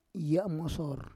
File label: "yamosor", Roon